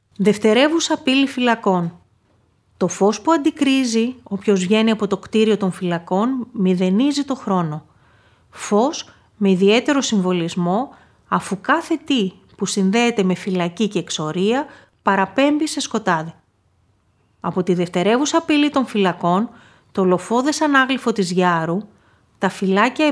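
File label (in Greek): Δευτερεύουσα Πύλη Φυλακών Χειμώνας, Ηχητική ξενάγηση; Γυάρο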